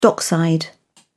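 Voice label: "dockside", British English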